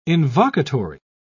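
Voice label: "invocatory", British English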